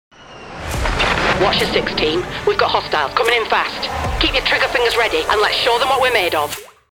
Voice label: GAMING Northern Fighter Pilot

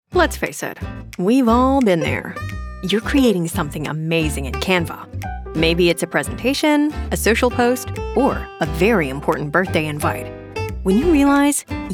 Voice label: Corporate USA

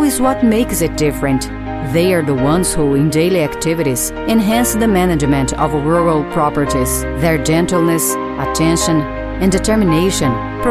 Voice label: Institutional Agribusiness EN; InstitutoSouzaCruz; BAT

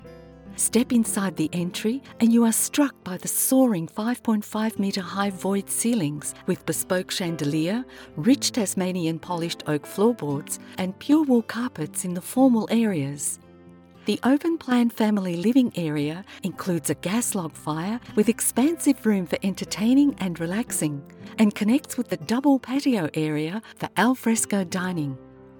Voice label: Real Estate Explainer

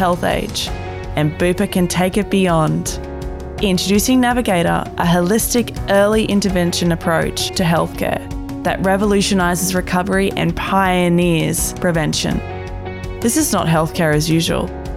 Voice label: Narration and Long form

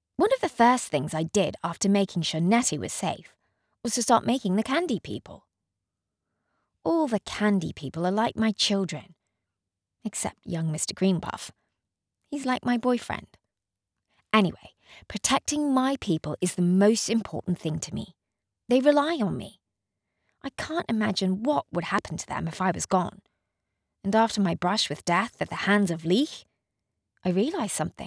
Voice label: British accent

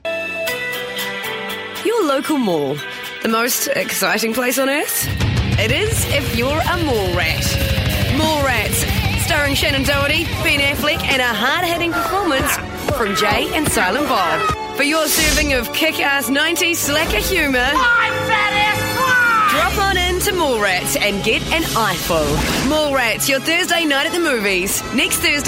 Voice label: Promo

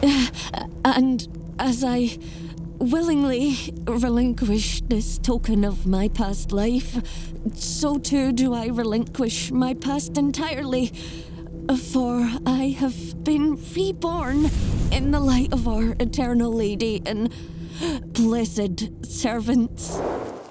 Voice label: scottish scared prisoner

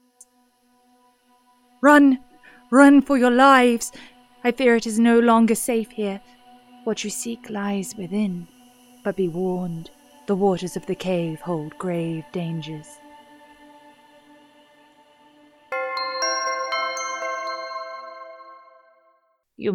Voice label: GAMING, en-NZ

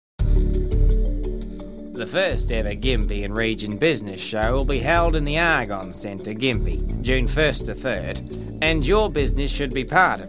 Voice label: Aus character

